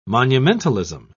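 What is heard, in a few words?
"monumentalism", British English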